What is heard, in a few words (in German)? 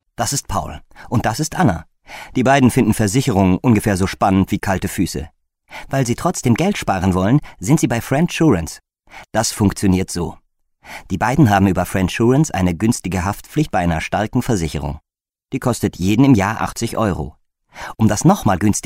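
advert, Dynamisch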